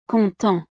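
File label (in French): "content", French; estados animo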